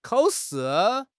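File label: "kao3 shi4", Mandarin Chinese; HSK2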